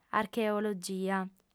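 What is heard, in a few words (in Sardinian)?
"archeologia", female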